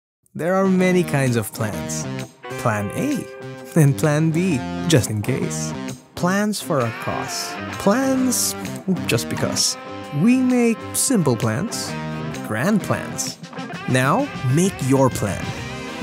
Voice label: English (Boy-Next-Door, Swag)

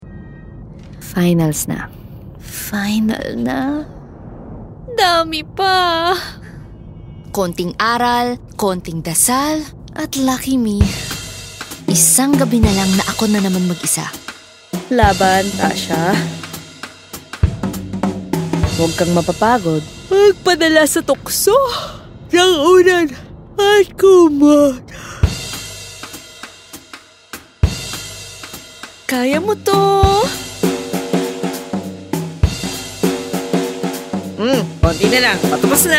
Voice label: Tagalog (Story Telling)

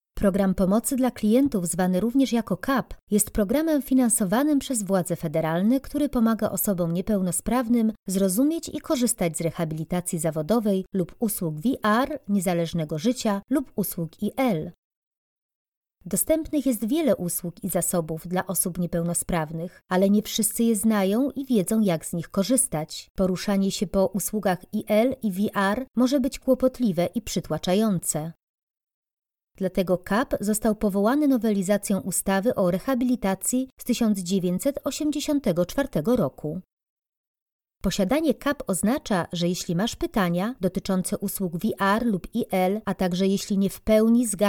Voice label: polish

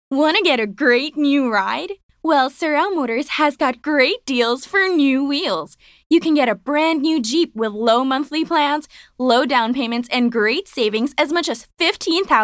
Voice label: English (Casual, Fun)

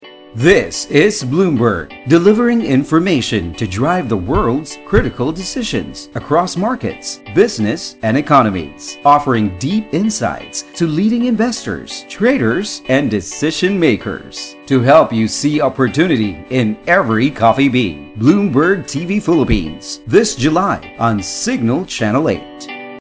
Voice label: vo sample with bed; bloomberg

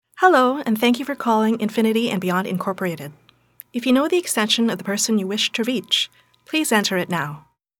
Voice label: English (Informative Professional)

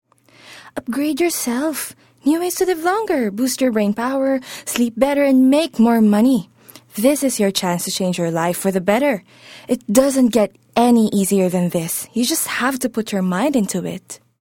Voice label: English (Story Telling)

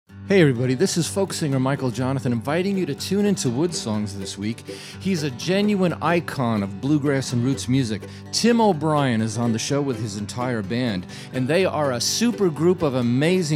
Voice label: thirty second promo

